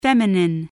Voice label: "feminine", spelling